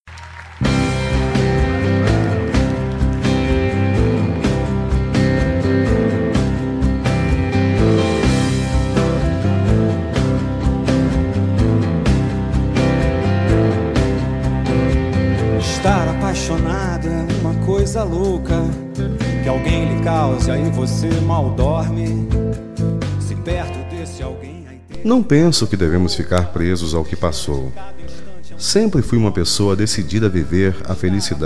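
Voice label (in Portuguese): ROMANTICA P EX male, telemensagem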